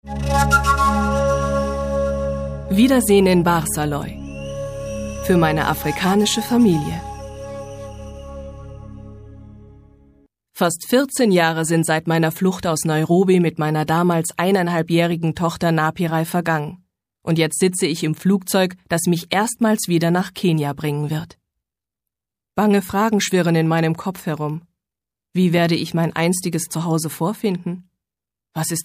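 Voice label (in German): Hörbuch; barsaloi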